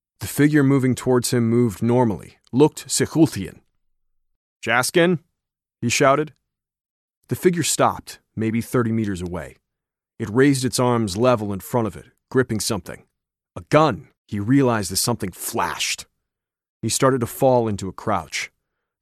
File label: Audiobook